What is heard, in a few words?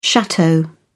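"chateau", British English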